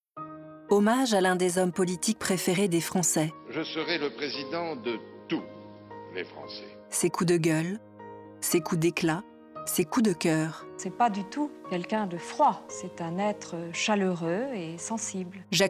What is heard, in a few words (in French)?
Bande annonce, voix off